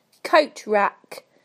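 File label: "coat rack", British English; PictureDictionary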